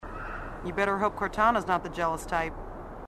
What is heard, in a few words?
female marine, sassy